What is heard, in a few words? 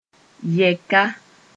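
"yɛka", Mossi; intersection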